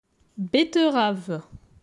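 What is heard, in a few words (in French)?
"Betterave", French; vocabulaire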